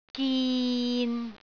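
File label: "gin6", Cantonese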